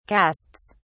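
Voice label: "casts", British English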